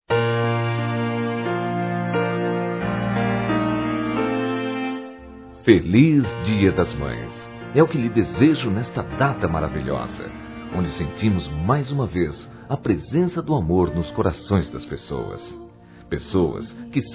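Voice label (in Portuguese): MÂE VOCÊ É ESPECIAL, Masculino; Dia das Mães; Telemensagens 2017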